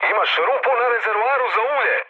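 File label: Serbian damaged oil mood med, speech; War Thunder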